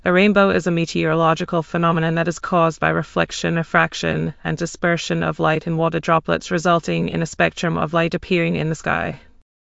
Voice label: speaker, en GB; piper voices; vctk